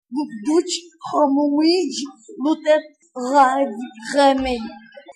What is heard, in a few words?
hovercraft, Klingon; lupDujHomwIj luteb gharghmey; My hovercraft is full of eels; Klingon, a constructed language